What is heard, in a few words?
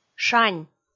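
"шань", Komi, female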